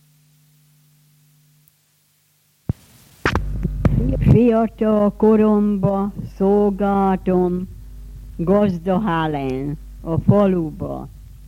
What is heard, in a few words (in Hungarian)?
nyelvjaras gyujtesek